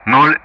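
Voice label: Speech Russian; DCS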